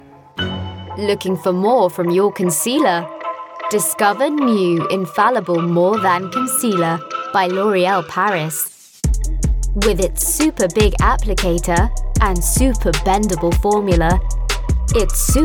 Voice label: commercial reel